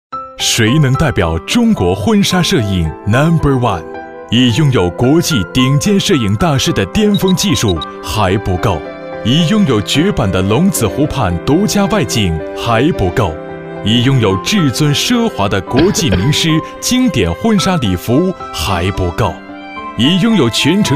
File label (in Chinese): 男 广告 浪漫 婚纱摄影, 国语男声配音 大气